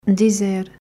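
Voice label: "Dizer", Portuguese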